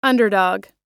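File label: "underdog", American English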